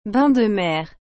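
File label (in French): "bains de mer", French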